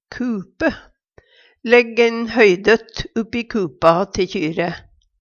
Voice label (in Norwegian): kupe, Numedalsmål